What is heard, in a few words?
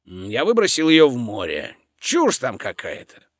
german